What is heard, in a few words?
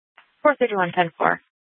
Sheriff, scanner; Shrf Northeast